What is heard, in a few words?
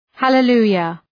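"hallelujah", English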